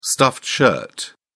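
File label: "stuffed shirt", English, uk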